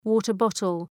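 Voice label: "water bottle", English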